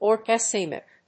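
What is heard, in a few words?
"orgasmic", English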